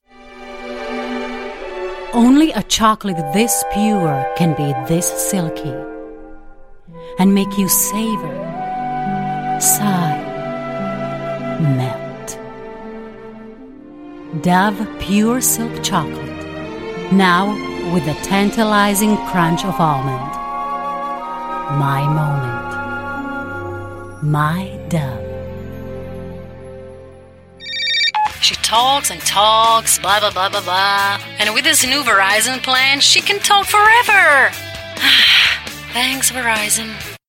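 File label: Czech English F Commercial, female, accent of Czech Republic; voice over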